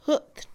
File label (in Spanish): Mixe, Mujer; sierra; Acciones; INALI